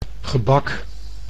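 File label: "gebak", Dutch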